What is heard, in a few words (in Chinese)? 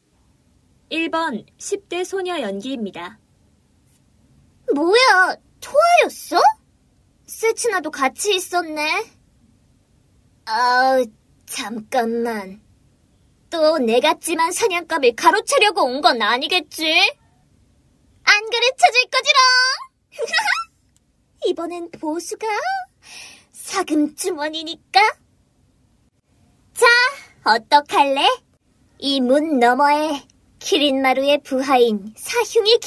韩语女声, 游戏信息流